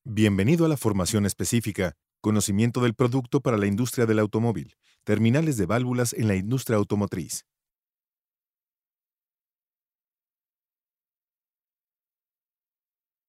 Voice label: Spanish Mexican commercial; Spanish (Latin American) Spanish (Mexican); Mexico